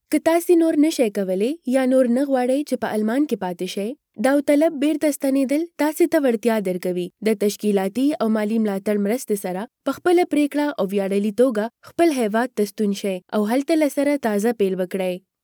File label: female pashto voice over narrative, pashto for afghanistan